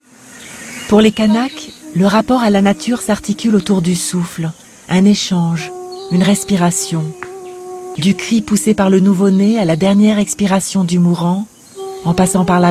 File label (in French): Docu; Les forets caledoniennes; le Souffle